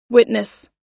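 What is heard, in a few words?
"witness", US English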